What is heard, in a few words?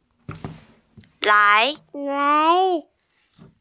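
"lai2", Mandarin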